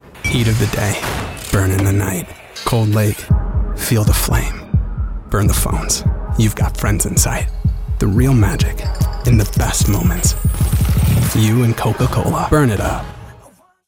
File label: Commercial; Coca-Cola Burn It Up